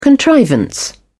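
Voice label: "contrivance", British English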